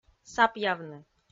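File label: "сапъявны", Komi, female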